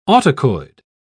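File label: "autacoid", British English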